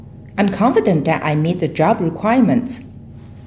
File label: female filler; interviewee